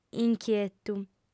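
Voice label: "inchietu", female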